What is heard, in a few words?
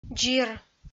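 "дзир", Komi, female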